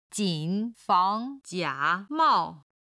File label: "jin3 fang2 jia3 mao4", Chinese